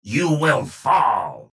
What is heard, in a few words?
vo chatter positive; strogg infiltrator; frag